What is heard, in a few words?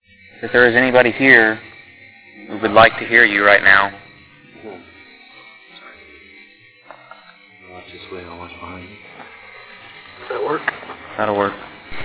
E.V.P. "sorry" with noise filter